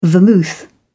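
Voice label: "vermouth", British English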